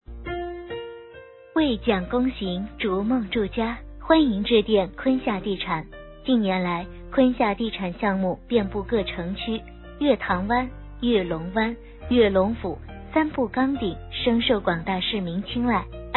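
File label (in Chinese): 彩铃广告地产; 坤厦地产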